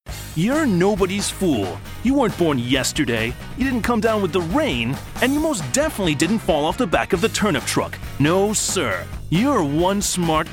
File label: Commercial, voiceovers; Commercial Reel